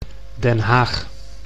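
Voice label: "Den Haag", Dutch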